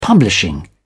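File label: "publishing", British English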